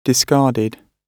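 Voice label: "discarded", British English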